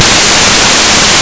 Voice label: duros, voices male; Дуроc